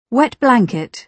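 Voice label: "wet blanket", British English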